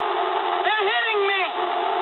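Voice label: aircraft damaged mood low, speech English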